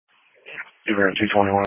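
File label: Police Patrol (Dispatch)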